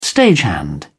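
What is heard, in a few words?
"stagehand", British English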